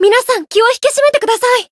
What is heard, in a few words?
encount, jp